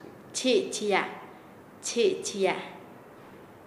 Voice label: Nasa Yuwe; lavar; paez